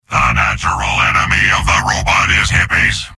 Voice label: soldier robot; mvm

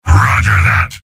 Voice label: soldier m positive vocalization; tf2; garrysmod; mvm

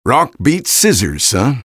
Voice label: eng taunt rps win; vo; taunts; tf2; engy

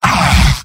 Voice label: demoman m negative vocalization; tf2; garrysmod; mvm

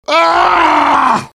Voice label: jeers; heavy

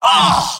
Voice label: scout negative vocalization; tf2; mvm

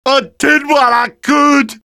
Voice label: jeers; demoman; Garry's Mod; tf2 content

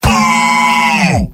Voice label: scout jeers; mvm

